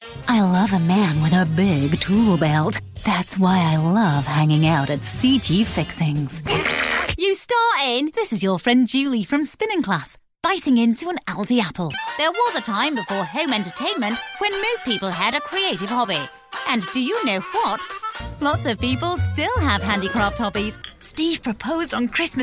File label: Character